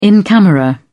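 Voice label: "in camera", British English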